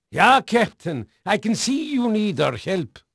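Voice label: Dutch male, voice; Pirates Of The Caribbean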